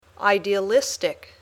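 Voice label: "idealistic", English word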